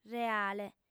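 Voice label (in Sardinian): "reale", female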